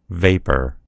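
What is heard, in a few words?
"vapor", American English; gcompris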